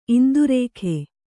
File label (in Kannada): "ಇಂದ್ರಕ", Kannada